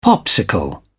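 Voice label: "popsicle", British English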